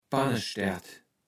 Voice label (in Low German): "Pannestärt", Platt